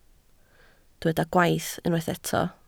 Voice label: "gwaith", Welsh

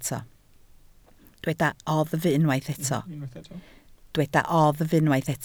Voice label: "oddf", Welsh

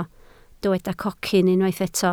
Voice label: "cocyn", Welsh

